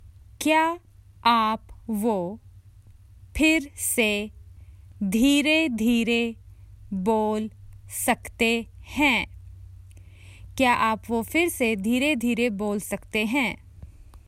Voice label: Can you please repeat that slowly, Common Hindi Phrases for travel